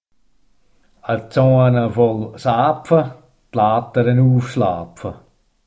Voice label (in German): sennwald spruch, sennwalder dialekte